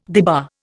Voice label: "debar", Indian

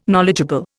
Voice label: "knowledgeable", Indian

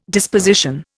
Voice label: "disposition", Indian

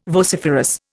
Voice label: "vociferous", Indian

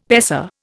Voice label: "paisa", Indian; Dictionary

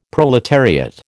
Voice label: "proletariat", American

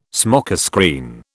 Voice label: "smokescreen", British

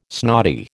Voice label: "snotty", American

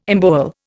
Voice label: "embowel", Indian

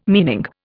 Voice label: "meaning", Indian

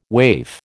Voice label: "waif", American

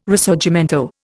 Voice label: "Risorgimento", Indian

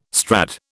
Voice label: "Strad", British

